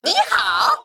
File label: Male Mech Threaten; zh